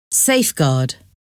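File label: "safeguard", English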